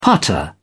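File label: "putter", British English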